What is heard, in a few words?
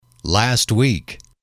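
"last week", English, male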